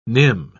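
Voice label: "nim", British English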